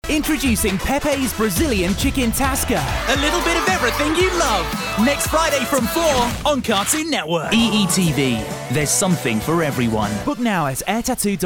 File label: Commercial Short